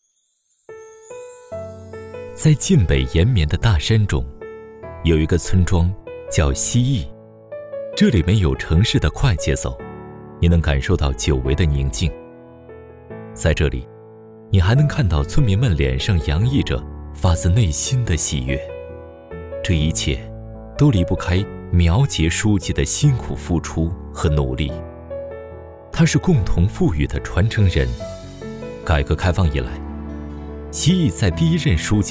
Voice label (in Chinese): 旁白